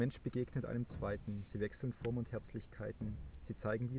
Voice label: room speech 8kHz